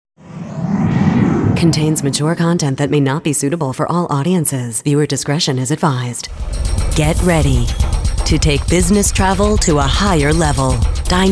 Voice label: Narration

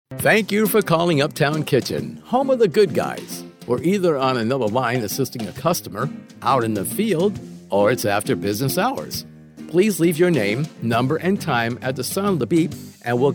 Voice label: PHONE GREETING

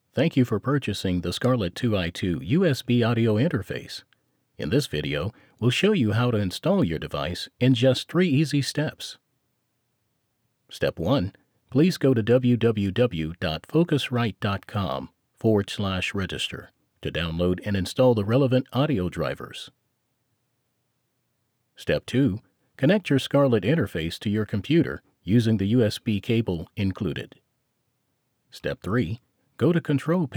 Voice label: eLearning

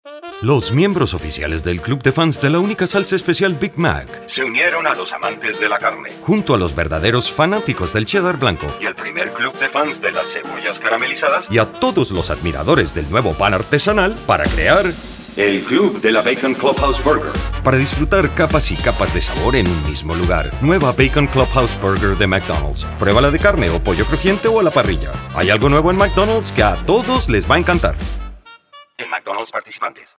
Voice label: Spanish VO; McDonalds Bacon Club

